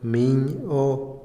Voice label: coyote, Otomí, hombre; INALI

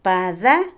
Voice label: buitre, Otomí, mujer; Animales; INALI

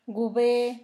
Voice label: vapor, Zapoteco; Fenómenos; San Pedro Mártir; INALI

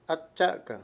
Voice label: "siguiente", Mayo; Palabras con distintas funciones

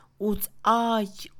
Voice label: hoja, Mixe, Mujer; INALI

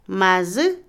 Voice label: lagartija, Otomí, mujer; Animales; INALI

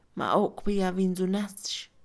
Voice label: funeral, Mixe, Mujer; Religión; INALI

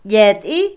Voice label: Otomí, mujer; conducir; Movimiento; INALI

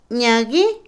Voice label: Otomí, mujer; esconder; INALI

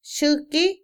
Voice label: escoger, Otomí, mujer; Emociones; INALI

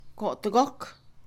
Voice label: después, Mixe, Mujer; INALI

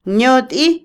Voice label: "electricidad, luz eléctrica", Otomí, mujer; Moderno; Vocabulary; INALI

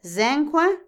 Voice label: saludar, Otomí, mujer; Habla; INALI

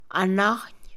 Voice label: izquierda, Mixe, Mujer; INALI

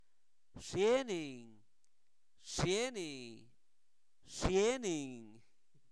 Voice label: Zapoteco Albarradas, santo domingo albarradas; INALI; preguntas; qué

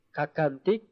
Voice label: "pie", Tseltal; INAH

